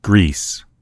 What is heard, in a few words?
"greece", en_US; gcompris; geography